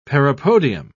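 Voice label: "parapodium", British English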